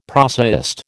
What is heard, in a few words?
"prosaist", American